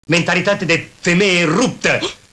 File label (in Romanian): femeie